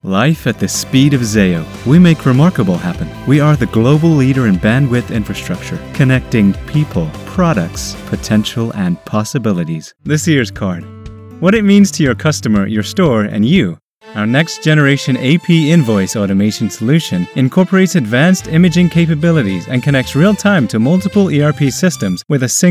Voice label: American Corporate

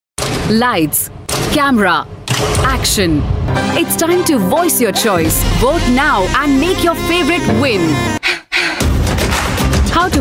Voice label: English Upbeat

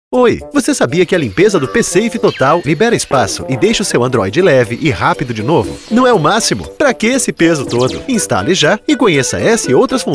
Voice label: APP IN PORTUGUESE VOICE

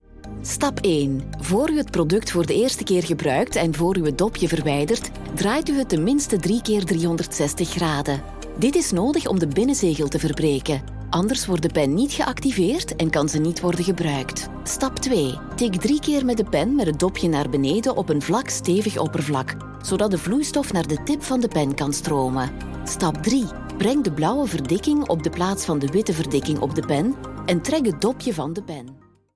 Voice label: Instruction Video informative friendly; Wartner Wart Removal

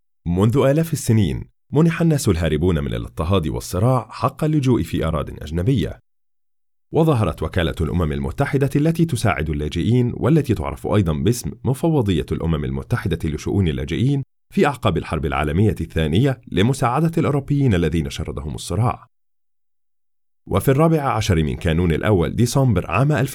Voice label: Standard Arabic Documentary

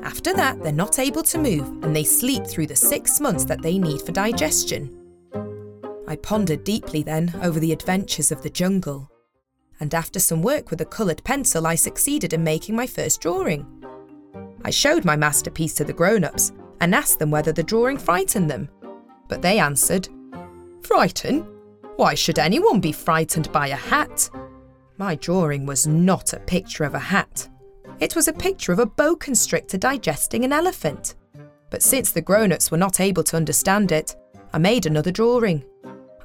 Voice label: childrens audio book

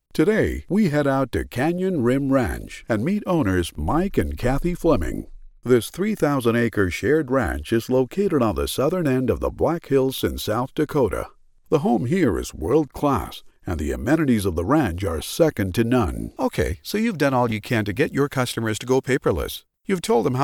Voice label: Business Corporate narration

